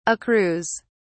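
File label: "accrues", British English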